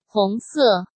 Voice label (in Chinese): "红色", Chinese